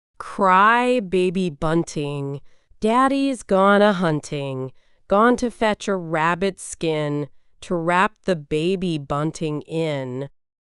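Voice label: female US; Cry Baby Bunting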